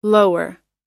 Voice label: "lower", pronounce

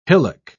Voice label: "hillock", pronounce

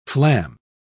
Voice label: "flam", pronounce

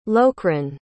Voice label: "locryn", pronounce

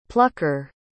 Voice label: "plucker", pronounce

